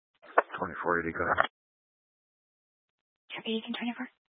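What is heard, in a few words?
Sheriff Dispatch, Scanner audio recording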